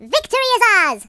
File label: Victory, voices, es; Hedgewars